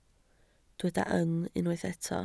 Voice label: "yng", Welsh

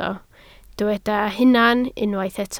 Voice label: "hunan", Welsh

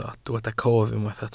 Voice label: "cof", Welsh

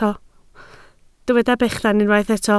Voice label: "bechan", Welsh

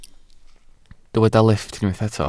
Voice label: "lifft", Welsh

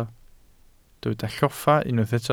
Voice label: "lloffa", Welsh

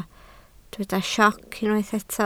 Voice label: "sioc", Welsh

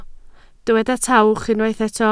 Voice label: "tawch", Welsh

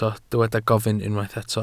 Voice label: "gofyn", Welsh

